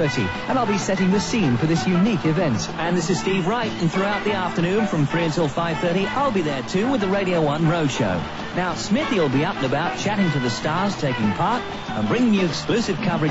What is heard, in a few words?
knockout trailer; m smith; Royal 'its a Knockout!'(1987); Radio 1